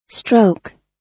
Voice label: "stroke", us